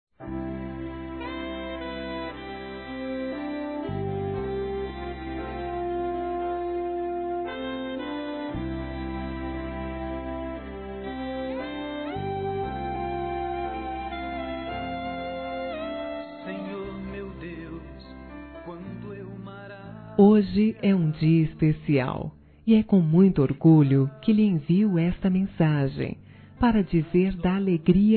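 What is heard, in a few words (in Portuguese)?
Namorados pra Evangelico, female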